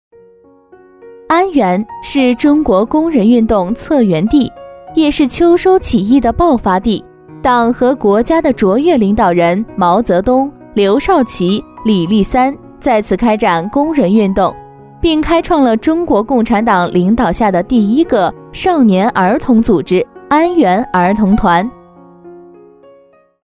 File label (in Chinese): 景区讲解; 一景区讲解